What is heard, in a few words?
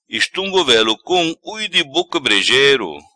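"Estunga Velocung Uidi Boca Brejero", patua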